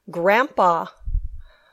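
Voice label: "grandpa", English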